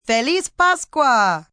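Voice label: Easter Spanish F, female, Spanish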